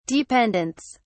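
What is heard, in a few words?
"dependents", British English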